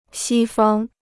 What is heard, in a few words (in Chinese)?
"西方", Chinese